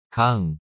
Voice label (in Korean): "강", Korean